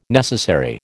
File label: "necessary", American